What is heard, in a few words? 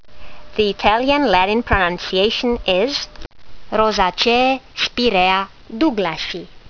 latin; Spiraea douglasii